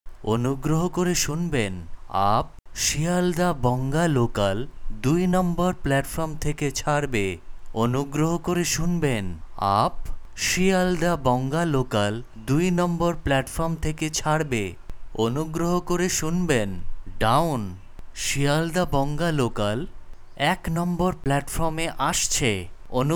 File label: Bengali Train Annoucements